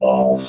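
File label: "balls", robot voice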